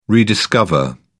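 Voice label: "rediscover", English, British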